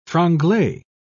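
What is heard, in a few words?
"franglais", English, British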